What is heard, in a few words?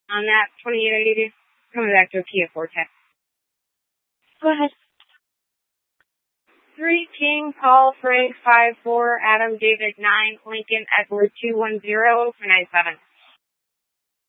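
Tempe Police Department, scanner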